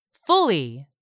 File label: "fully", English; 3000 words